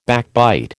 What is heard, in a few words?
"backbite", American